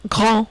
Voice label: "grand", French

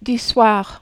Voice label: du soir, French

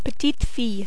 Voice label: "petite fille", French

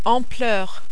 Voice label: "ampleur", French

